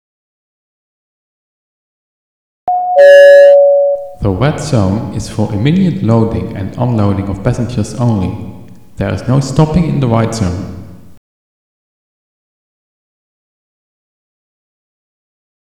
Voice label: airport pa; SuperTuxKart